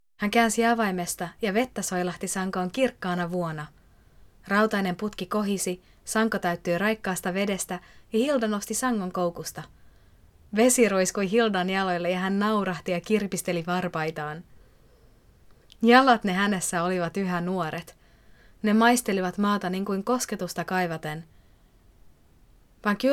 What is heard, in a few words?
female finnish audiobook narration; english finnish and swedish